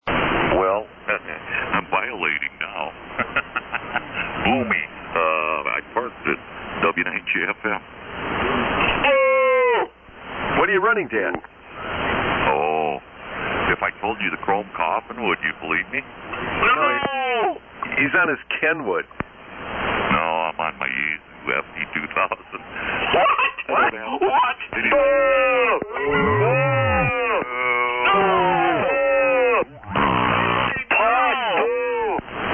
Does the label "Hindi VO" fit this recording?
No